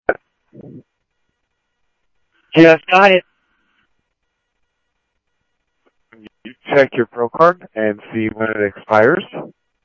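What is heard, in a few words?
Scottsdale Police Department Traffic Scottsdale Police, scanner audio; SCTSDLE PD-Traff; H-Scottsdale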